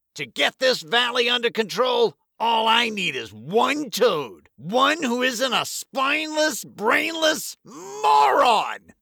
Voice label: english american animation